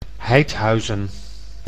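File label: "Heythuysen", Dutch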